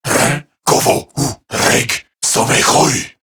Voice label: Patrol Corrupted Grineer Male